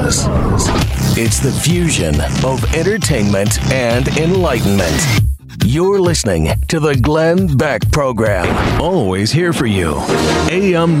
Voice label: NEWS TALK